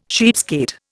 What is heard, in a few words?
"cheapskate", Indian